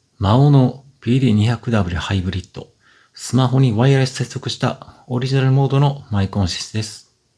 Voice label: maono pd200w hybrid Smartphone microphone sound quality